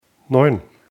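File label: "neun", German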